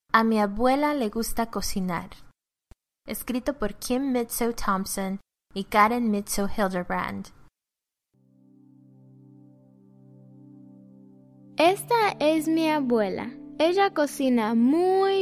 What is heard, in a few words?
Spanish Narration; A mi abuela, le gusta cocinar